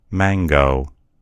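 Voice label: "mango", American English; gcompris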